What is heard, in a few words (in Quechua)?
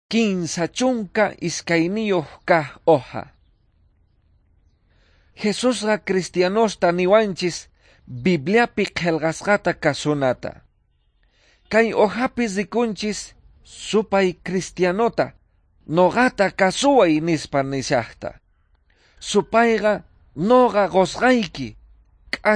quechua bolivian cochabamba; good news